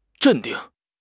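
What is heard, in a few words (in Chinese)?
"镇定", Chinese; HSK6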